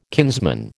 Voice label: "kinsman", American

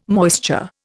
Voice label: "moisture", Indian

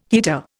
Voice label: "heater", Indian

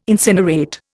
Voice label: "incinerate", Indian

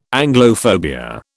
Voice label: "Anglophobia", British

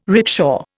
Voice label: "rickshaw", Indian

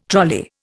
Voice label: "trolley", Indian

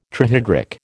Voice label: "trihydric", American

